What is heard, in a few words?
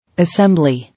"Assembly", English